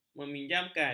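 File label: "meminjamkan", Malay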